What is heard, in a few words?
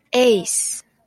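"ace", Japanese female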